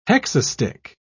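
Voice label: "hexastich", English, British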